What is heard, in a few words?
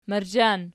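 "marzhan" nominative, Archi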